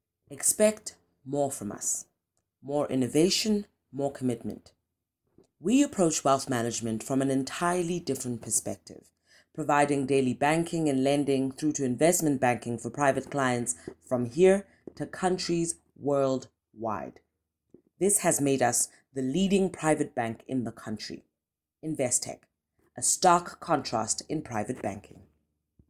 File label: English Corporate